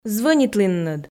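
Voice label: "звӧнитлінныд", Komi, female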